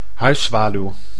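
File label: "huiszwaluw", Dutch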